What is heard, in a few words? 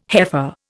"heifer", Indian